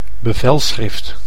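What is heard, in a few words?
"bevelschrift", Dutch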